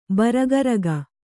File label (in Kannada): "ಭಾರಕಾರಕ", Kannada